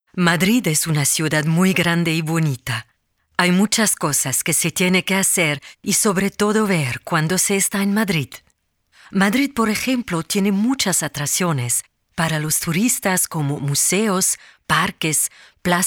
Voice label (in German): neutral Spanisch